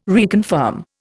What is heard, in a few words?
"reconfirm", Indian